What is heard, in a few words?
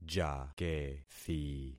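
"jakethi", concatenated syllables; Affixing experiment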